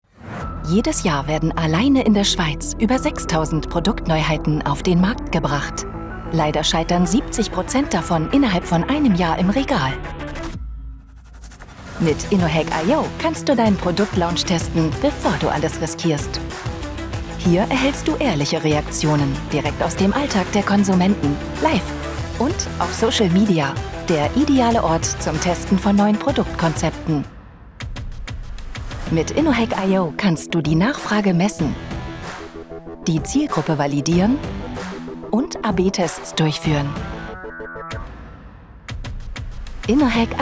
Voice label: Produktfilm; LOFT VOICES

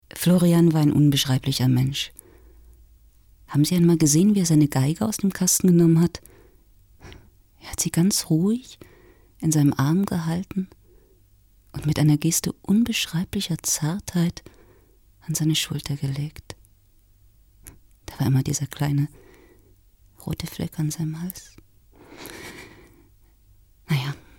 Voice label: synchron

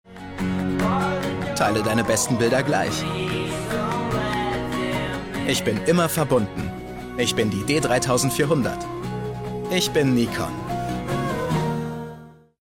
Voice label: Werbung; Nikon

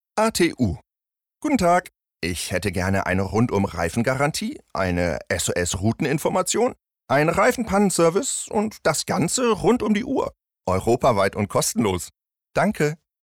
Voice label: Werbung, Commercial (Werbung), German

